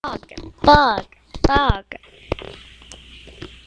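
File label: "bog", spoken word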